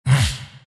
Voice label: grunts; dota; elder titan

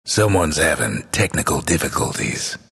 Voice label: announcer disconnect disconnected; dlc bastion

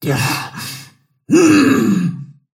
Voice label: anger; snapfire

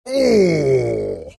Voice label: pain; bristleback

